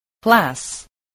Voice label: "class", English, voice